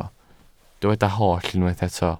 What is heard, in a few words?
"holl", Welsh